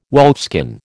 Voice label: "wolfskin", American